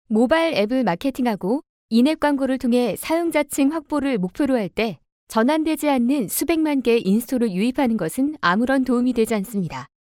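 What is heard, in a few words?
Korean, female, explainer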